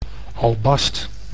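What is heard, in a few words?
"albast", Dutch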